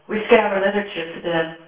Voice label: female